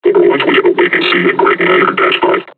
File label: taunt, combine charger; Half Life Alyx